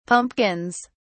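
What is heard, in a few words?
"pumpkins", British English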